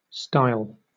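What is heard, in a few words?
"stile", British English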